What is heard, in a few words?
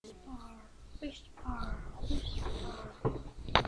whisper